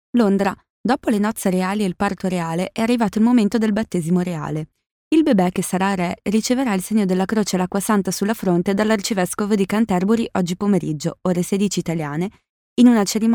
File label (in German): Nachvertonung Film Sprecherin Italienisch